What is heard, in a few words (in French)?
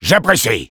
Sniper thanks, fr